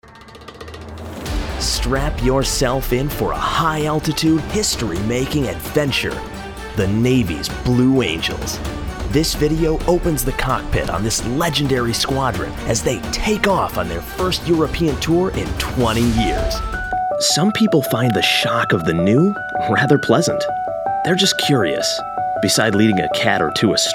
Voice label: Narr, Men Narration